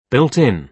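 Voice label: "built-in", British English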